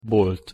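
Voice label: "bolt", Hungarian pronunciation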